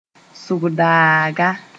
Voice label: "sʋgdaaga", Moore; kind of thorny plant

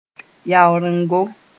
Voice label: "yaorengo", moore

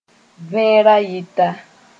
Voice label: "vẽ-rayɩta", moore

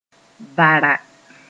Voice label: "vara", moore

